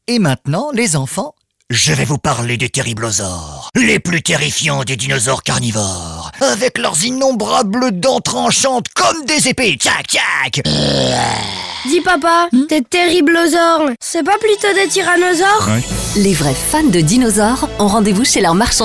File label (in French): radio pub spot